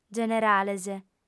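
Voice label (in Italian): "generales", lingua sarda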